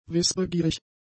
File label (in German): "wissbegierig", German; adjectives